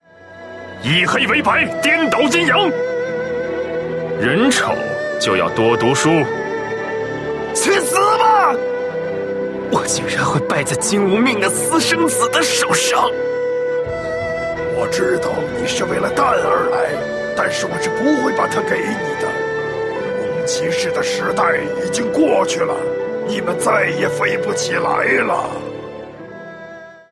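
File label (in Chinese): zhonglaonian, middle-aged and elderly man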